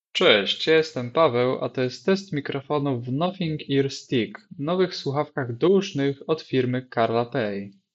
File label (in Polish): test mikrofonow nothing ear stick